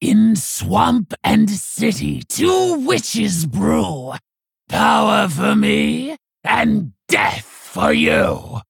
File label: Female Orc Trigger; Hagatha